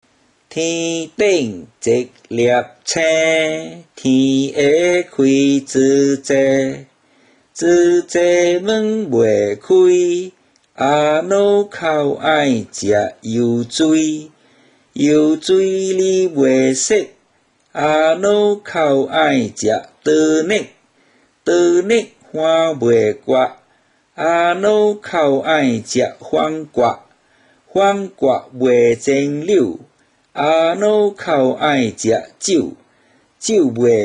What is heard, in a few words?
Sky One Star Recite, Teochew Nursery Rhymes